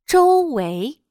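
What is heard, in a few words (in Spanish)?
"周围", chino mandarin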